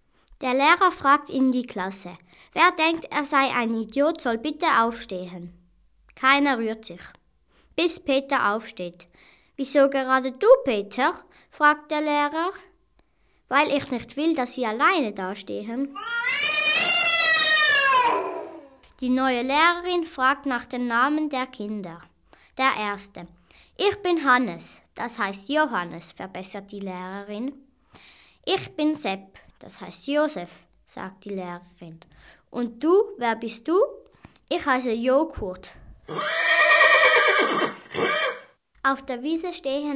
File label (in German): Witze normale Stimme; Witze gesammelt und vertont; ohrmampf